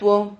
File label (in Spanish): "tuo", Silabas